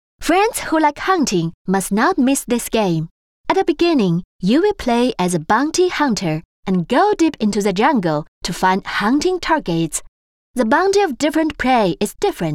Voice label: 英文信息流游戏

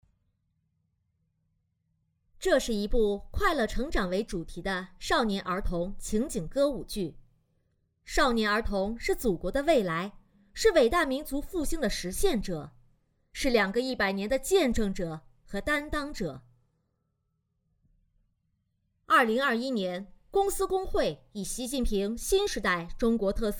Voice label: 旁白 解说 女声

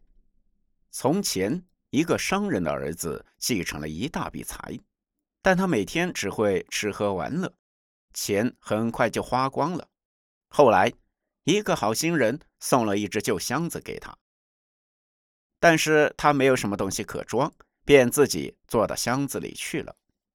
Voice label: 男频故事开端简述

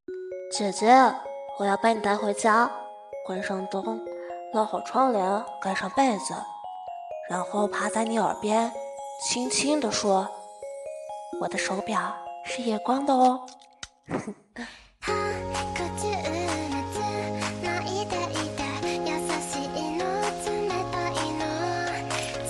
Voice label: 可爱正太音

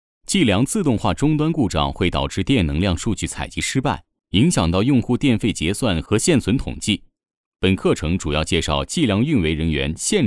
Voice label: 课件

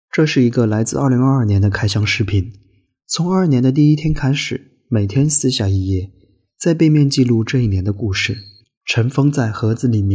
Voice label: 短视频带货

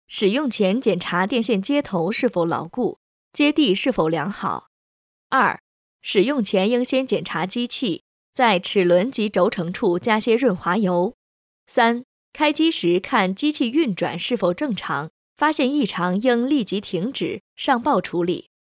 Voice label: 女压面机使用说明

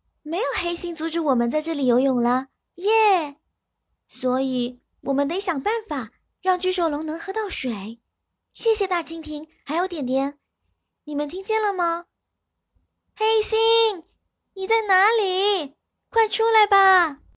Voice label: 儿童 女; 恐龙剧女今今泡浮泡泡

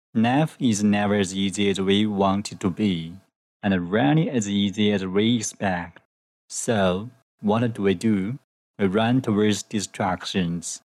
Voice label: 英语 心理语录; 国籍英语 鲸读全球 心理语录; 英文; 外语

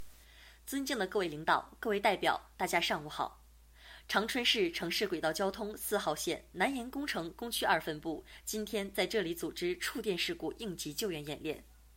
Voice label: 配音

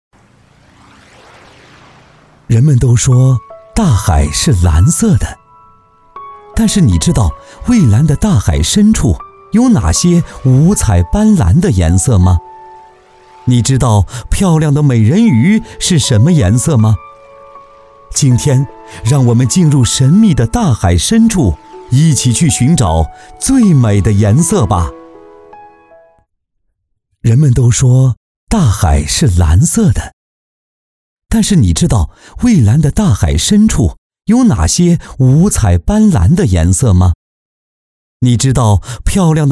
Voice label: 走心 旁白; 美人鱼; 走心旁白配音; 温柔男声; 男生配音; 专题配音

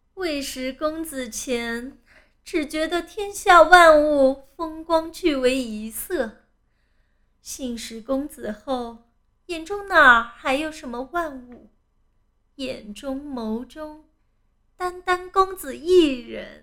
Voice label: 古风女子御姐

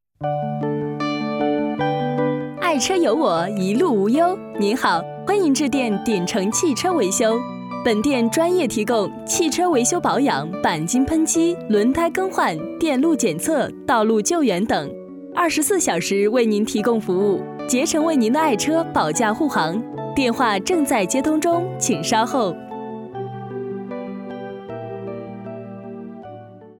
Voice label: 彩铃活泼

